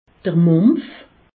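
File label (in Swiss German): "mums", Alsatian; medecine; oreillons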